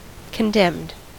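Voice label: "condemned", American English; pronunciations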